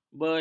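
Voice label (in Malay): "belam", Malay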